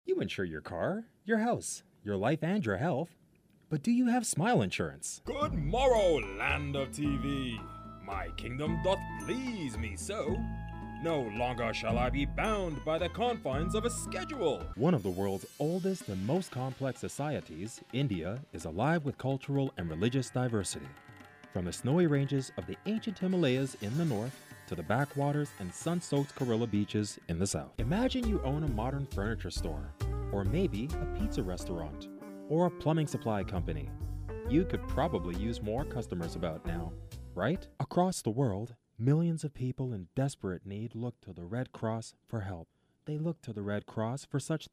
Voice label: Commercial Voice